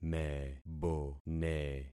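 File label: "mebone", concatenated syllables; Affixing experiment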